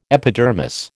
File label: "epidermis", American